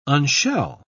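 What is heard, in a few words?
"unshell", British English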